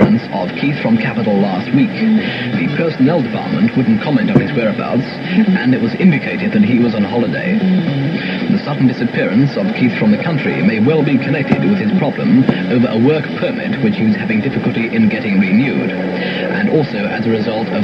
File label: Radio 1124kHz London; Radio Kaleidoscope; Music Week